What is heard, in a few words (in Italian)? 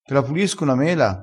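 "puli", vocabolario dialettale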